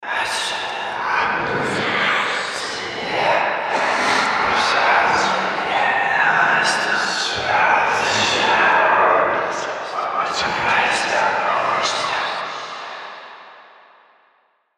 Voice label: whisper